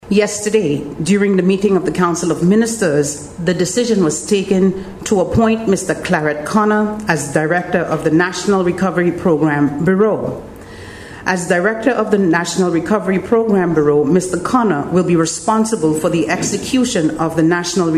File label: News item